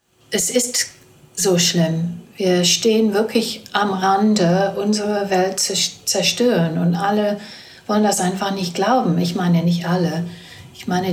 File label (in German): englisch deutsch